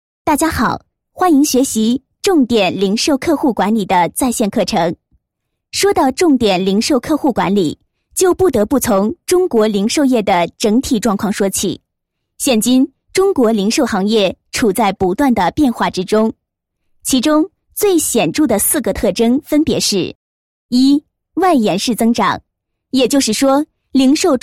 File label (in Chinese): 课件, 国语女声配音, 年轻广告; 重点零售客户的管理